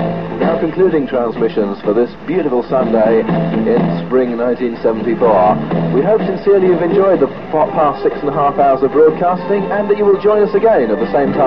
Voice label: Radio 1320kHz London Closedown; Radio Jackie